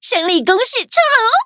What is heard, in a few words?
victory, zh-hant